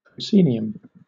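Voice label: "proscenium", British English; pronunciations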